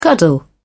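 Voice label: "cuddle", British English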